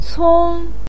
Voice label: "cong1", Chinese pinyin voice